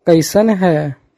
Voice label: Kaisan hen/ hin/ hahu?, Magahi; How are you?

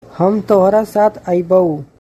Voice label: Hum tohra saath aibau?, Magahi

